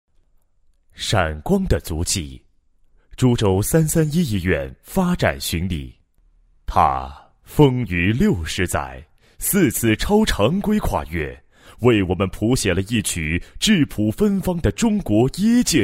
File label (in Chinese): 男 医院专题, 国语男声配音 厚重大气